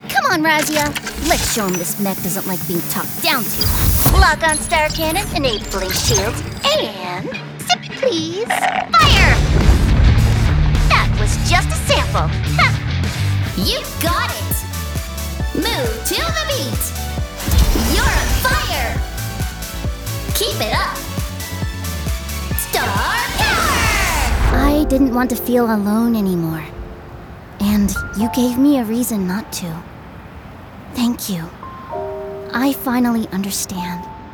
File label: Animation Video Game; voiceover demo reel